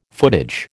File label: "footage", American